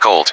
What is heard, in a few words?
"colt", EN